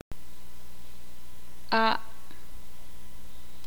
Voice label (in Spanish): "agua", ixil